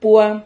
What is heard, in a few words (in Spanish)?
"pua", Silabas